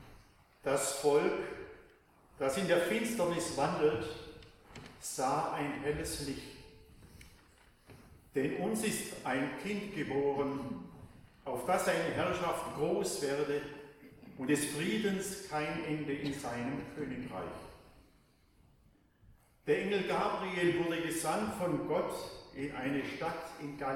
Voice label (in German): Chor im Advent Ansprache